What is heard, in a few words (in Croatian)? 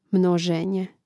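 "mnozenje", Croatian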